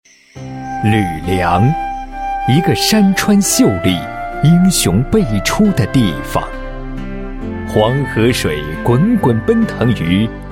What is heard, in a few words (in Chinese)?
男 旅游宣传; 大美吕梁 英雄故乡